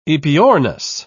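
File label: "aepyornis", British English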